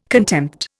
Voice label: "contempt", Indian